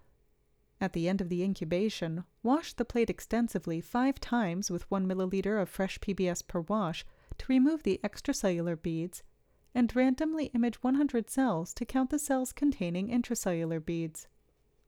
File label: Voiceover